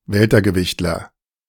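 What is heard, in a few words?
"Weltergewichtler", German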